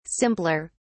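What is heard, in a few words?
"simpler", British English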